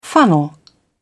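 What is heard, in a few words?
"funnel", British English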